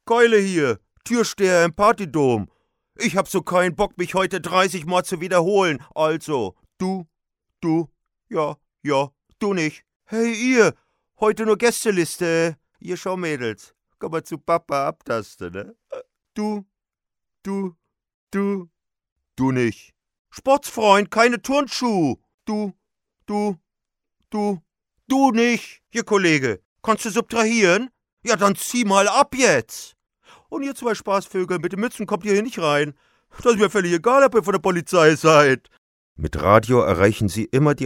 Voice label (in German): tuersteher werbung